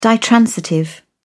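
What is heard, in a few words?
"ditransitive", British English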